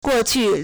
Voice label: "guo4qu4", Chinese

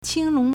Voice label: "qing1 long2", Chinese

